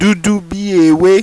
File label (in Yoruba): "DUDU BI EWE", Yoruba; Green